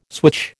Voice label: "switch", American